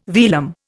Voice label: "velum", Indian